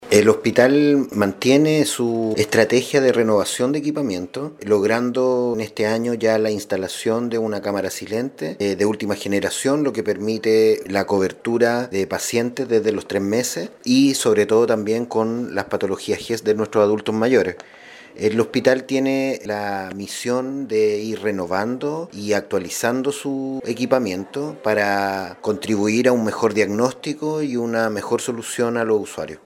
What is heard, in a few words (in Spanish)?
cuña; CAMARA SILIENTE SDA